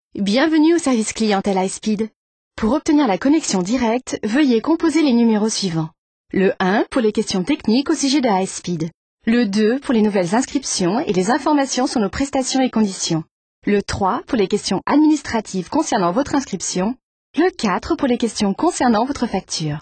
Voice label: high speed, frauen aus aller welt